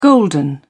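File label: "golden", British English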